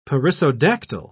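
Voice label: "perissodactyl", British English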